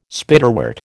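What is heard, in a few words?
"Spiderwort", American English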